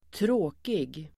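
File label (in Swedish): "tråkig", Swedish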